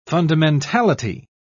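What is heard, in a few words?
"fundamentality", British English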